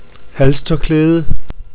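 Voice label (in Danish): "halstoerklaede", Danish; tdegames; ktuberling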